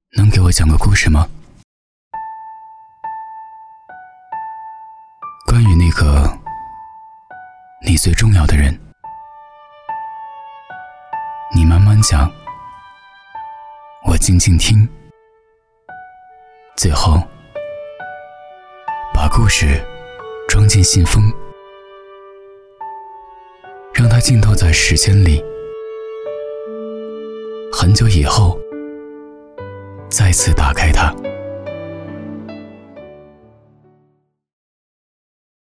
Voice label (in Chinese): 男 直击心底 讲个故事